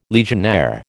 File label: "Legionnaire", American